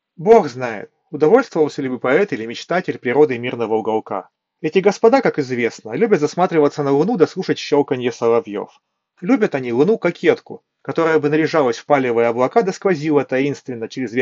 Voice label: Mic; Запись с микрофона гарнитуры